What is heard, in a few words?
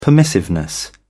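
"permissiveness", British English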